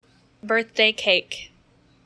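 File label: "birthday cake", English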